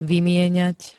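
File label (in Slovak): "vymieňať", Slovak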